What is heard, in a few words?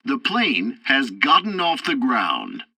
takeoff completed, english; War Thunder